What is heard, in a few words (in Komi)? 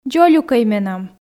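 "дзолюкӧй менам", Komi, female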